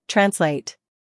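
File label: "scandal", American; translate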